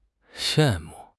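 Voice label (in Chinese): "xian4 mu4", Mandarin Chinese; 汉语口语新时代-初级下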